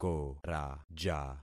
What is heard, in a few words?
"koraja", concat syllables; Affixing experiment